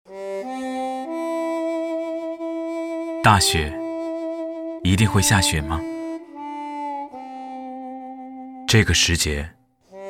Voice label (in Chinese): 男 走心 温度